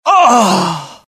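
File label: death, dialogue generic Italian; mohaa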